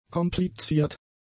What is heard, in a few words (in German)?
"kompliziert", German; adjectives